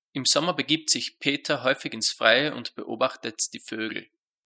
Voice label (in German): satz denoise